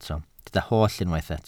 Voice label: "holl", Welsh